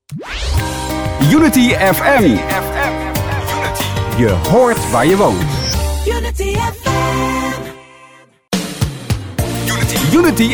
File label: Jingles FM; Unity FM